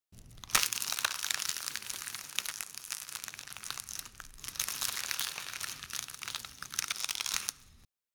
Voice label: kid say ice cream